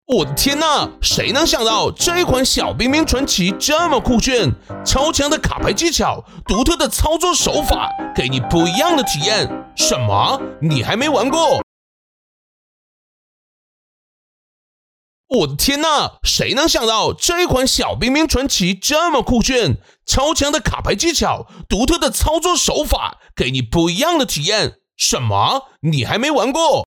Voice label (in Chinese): 熊台湾腔